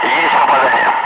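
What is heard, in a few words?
Russian speech, Ka-50 Wingman; good hits on target; DCS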